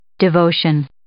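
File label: "devotion", English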